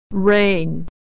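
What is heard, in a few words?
"rain", American English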